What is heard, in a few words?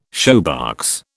"shoebox", British